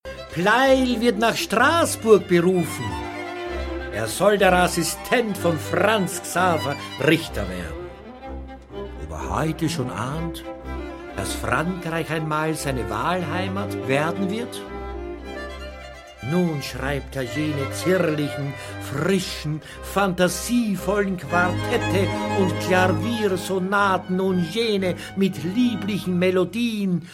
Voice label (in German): Hörbuch; pleyel